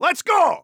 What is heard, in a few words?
radio lets go; Counter Strike; CS:GO; phoenix coop